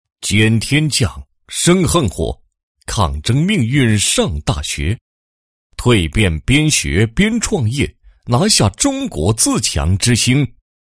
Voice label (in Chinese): 男 人物 宣传, 配音; 新农人王某事迹介绍宣传